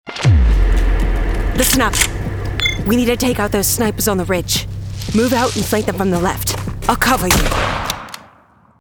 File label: uk soldier; video games animation